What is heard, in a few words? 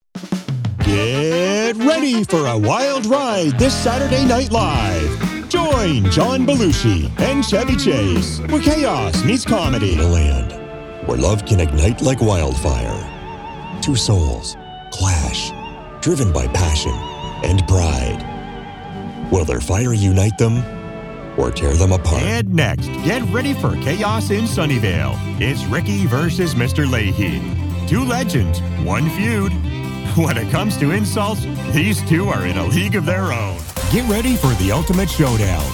Promo